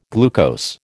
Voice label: "glucose", American

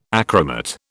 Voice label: "achromat", British

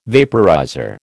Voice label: "vaporizer", American

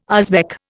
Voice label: "Uzbek", Indian

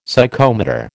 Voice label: "Psychomotor", American

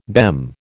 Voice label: "BEM", American

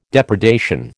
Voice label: "depredation", American

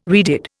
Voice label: "redid", Indian

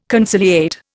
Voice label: "conciliate", Indian

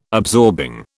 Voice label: "absorbing", British

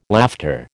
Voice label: "laughter", American

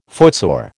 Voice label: "footsore", American

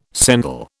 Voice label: "sendal", British

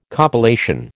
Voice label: "compilation", American

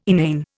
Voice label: "inane", Indian

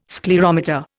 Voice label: "sclerometer", Indian

